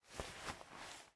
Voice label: Angry; MitaCap; MiSide